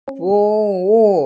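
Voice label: "fuwu", Mandarin Chinese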